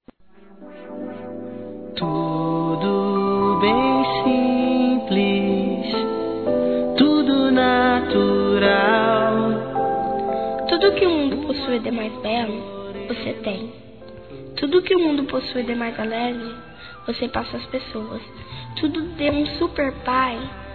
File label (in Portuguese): ANIV. PAPAI-F, Telemensagens; Aniversário Infantil